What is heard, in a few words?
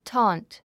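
"taunt", English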